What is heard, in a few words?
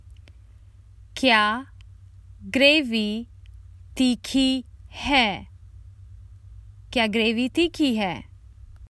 Common Hindi Phrases for travel Is the sauce spicy